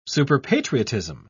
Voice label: "superpatriotism", en uk